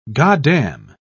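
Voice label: "goddam", British English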